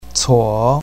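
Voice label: "cuo3", Mandarin Chinese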